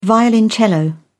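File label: "violoncello", English, British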